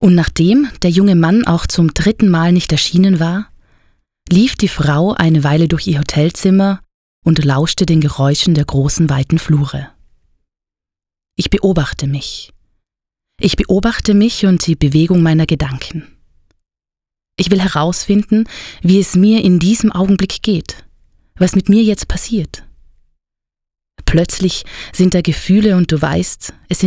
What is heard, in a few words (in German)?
Hoerbuch